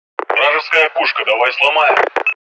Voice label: regroup, radio